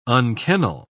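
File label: "unkennel", English, British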